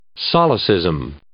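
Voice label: "solecism", English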